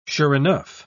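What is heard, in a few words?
"sure-enough", British English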